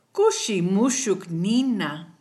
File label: new year, kichwa